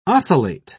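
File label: "ocellate", British English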